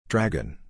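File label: "Dragon", English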